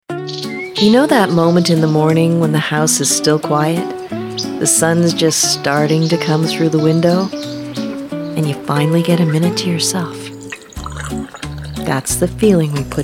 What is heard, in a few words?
Commercial Voice